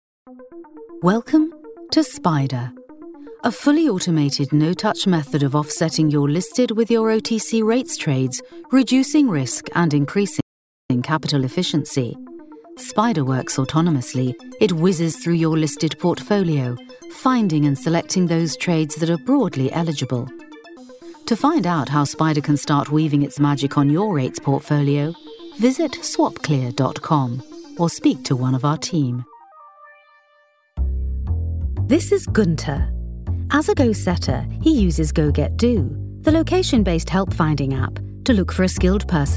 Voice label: corporate mono

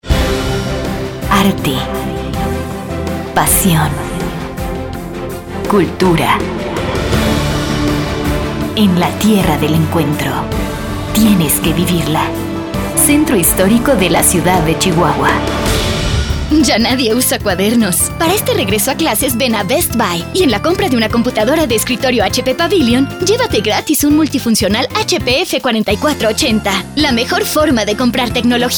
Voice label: Commercial Spanish

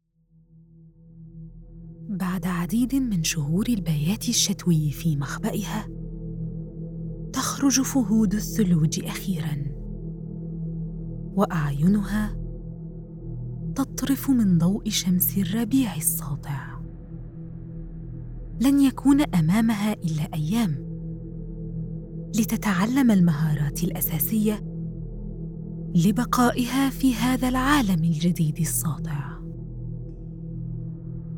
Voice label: Snow Leopards Narration

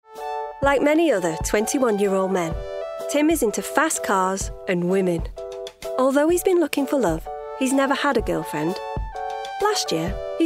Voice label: narrative

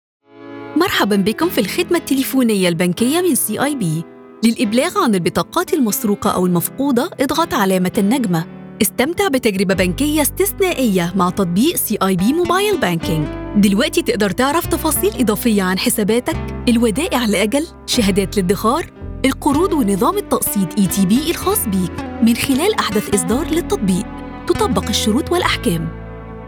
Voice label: IVR

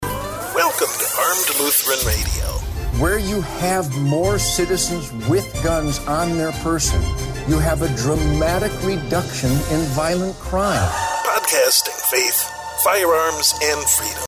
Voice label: Radio; Armed Lutheran

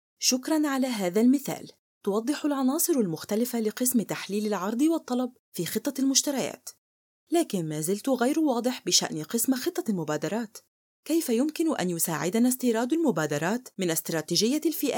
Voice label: Arabic Audio Book Sample

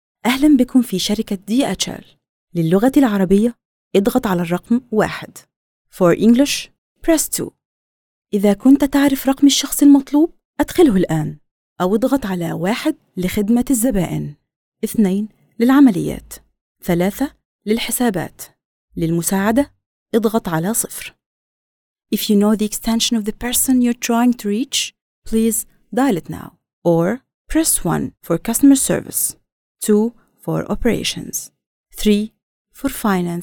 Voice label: IVR; DHL

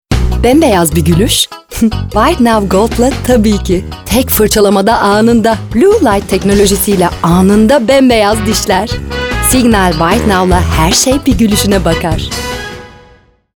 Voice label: Commercial Turkish; Signal

